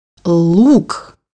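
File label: "onion", Russian; bukvar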